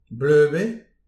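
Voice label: "bleup eo", Breton; petit precis de prononciation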